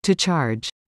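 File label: "to charge", English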